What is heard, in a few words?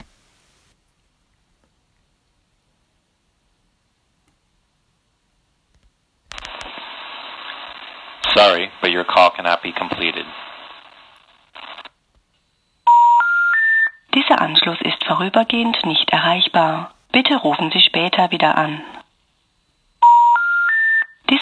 pstn calling germany